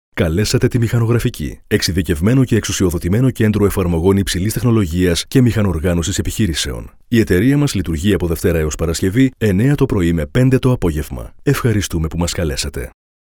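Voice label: Male Phone prompts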